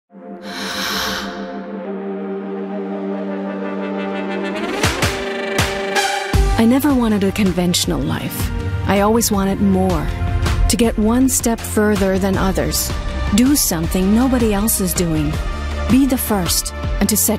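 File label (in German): US English, Kommentar Sanft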